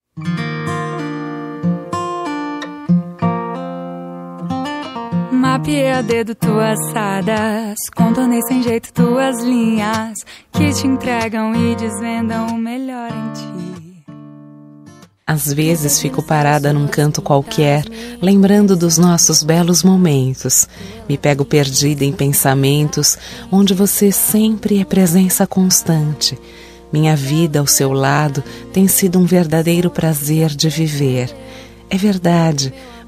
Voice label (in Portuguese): ROMANTICA SUAVE F